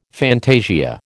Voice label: "fantasia", American

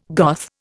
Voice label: "Goth", Indian

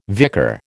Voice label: "vicar", American

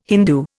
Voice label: "Hindu", Indian

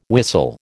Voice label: "whistle", American

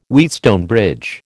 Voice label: "Wheatstone bridge", American

